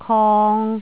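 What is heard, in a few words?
"kong3", Cantonese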